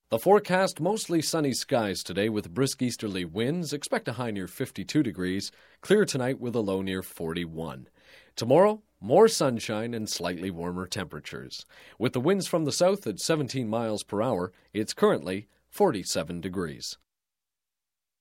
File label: Broadcast, Weather Generic Spring Forecast; Dry; Radio; Sound Ideas; Series 2000